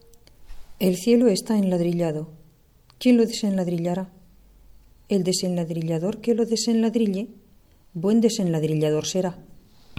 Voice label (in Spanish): trabalenguas el cielo